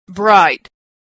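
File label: "bright", American English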